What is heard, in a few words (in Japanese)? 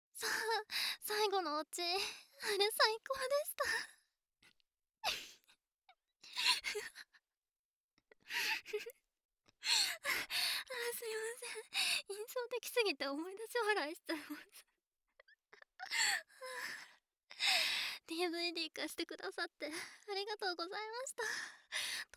電話でエッチな夢の話(左・加工なし)